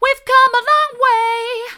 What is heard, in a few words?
we've come along way soft; vocal